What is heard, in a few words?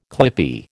"clippie", American